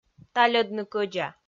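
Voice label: "тальӧдны кӧдза", Komi, female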